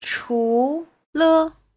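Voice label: "chúle", Mandarin Chinese; 除了; Giáo trình chuẩn HSK3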